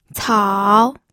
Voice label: "cao3", pinyin